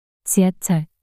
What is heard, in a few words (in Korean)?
"지하철" 단어 여자